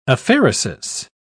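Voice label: "aphaeresis", British English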